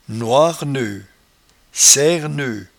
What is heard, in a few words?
"noire neut", patois jura